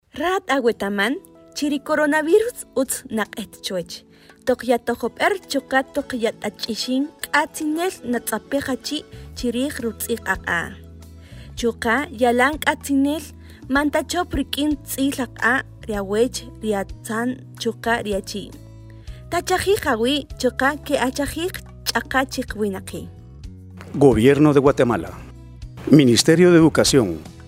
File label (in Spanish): Evitar contagio Kaqchiquel